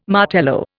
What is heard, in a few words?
"Martello", Indian